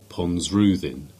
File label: Cornish; Ruthernbridge